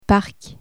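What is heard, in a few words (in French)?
"parc", French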